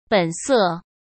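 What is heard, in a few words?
"本色", Mandarin Chinese; chinese-flashcards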